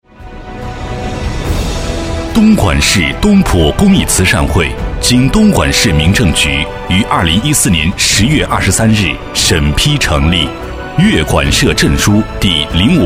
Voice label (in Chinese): 专题 男 大气; 东普样音